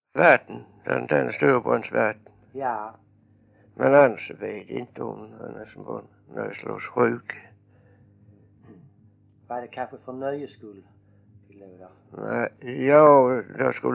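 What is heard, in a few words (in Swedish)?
Vånga Skåne, dialekter; Folkminnen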